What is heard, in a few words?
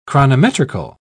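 "chronometrical", British English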